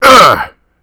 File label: PAIN; DN3D; Duke Nukem 3D